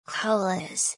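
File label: "colours", English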